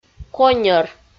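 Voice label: "коньӧр" Komi, female; audio dict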